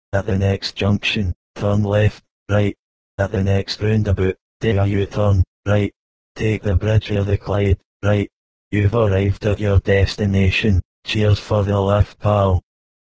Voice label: Glasgow satnav